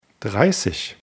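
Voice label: "dreissig", German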